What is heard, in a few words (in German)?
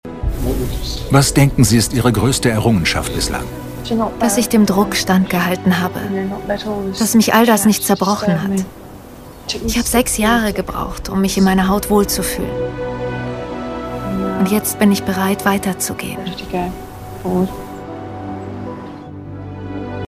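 commentary, Sanft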